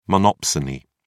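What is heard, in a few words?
"monopsony", British English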